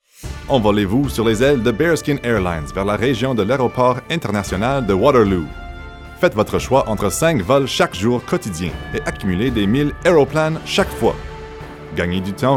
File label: FRENCH